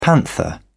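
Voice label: "panther", British English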